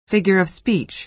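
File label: "figure of speech", American English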